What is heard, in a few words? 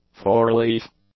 "four-leaf", English